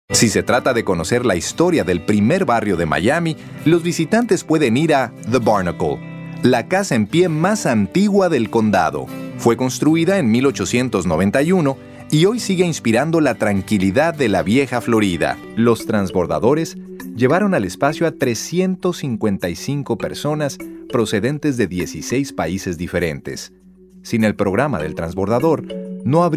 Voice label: audio tour